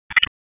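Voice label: Raleigh Police North District Tactical; wake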